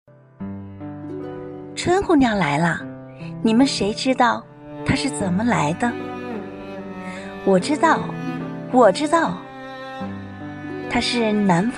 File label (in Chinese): 诗歌; 春姑娘